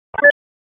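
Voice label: Police Spec Ops Tac, radio; wake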